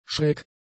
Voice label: "schräg", German; adjectives